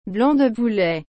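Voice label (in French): "blanc de poulet", French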